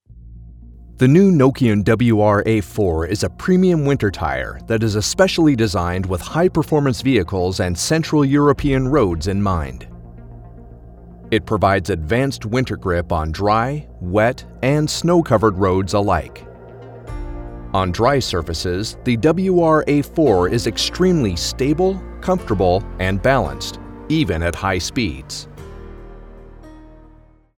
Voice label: US, speakere